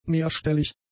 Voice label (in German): "mehrstellig", German; adjectives